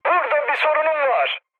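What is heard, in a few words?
Turkish aircraft damaged, mood med, speech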